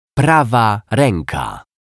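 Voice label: "prawa reka", Polish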